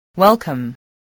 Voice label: "welcome", spelling

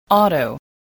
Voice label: "auto", english

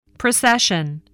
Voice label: "procession", voice, spelling

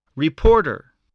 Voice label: "reporter", English

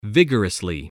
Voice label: "vigorously", English

